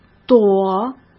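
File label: "朵", Mandarin Chinese; chinese flashcards; hsk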